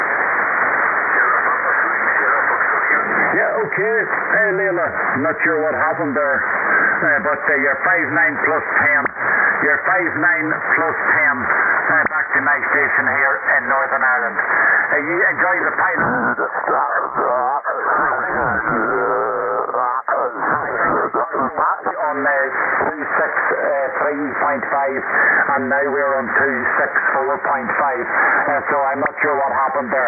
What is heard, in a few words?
20m SSB